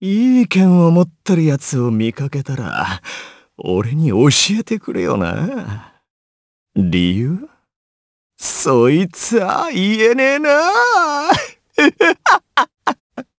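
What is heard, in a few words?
voice dia, voices heroes, Japanese; Kibera; kingsraid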